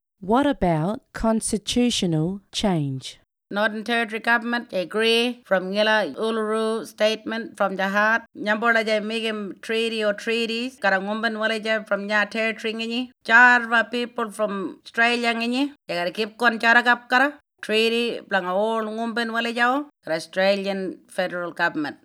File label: Ngarinyman; WHAT ABOUT CONSTITIONAL CHANGE